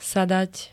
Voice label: "sadať", slovenské

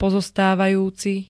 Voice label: "pozostávajúci", Slovenské

